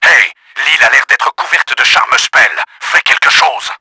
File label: CARPET, french; Battle Engine Aquila; XBOX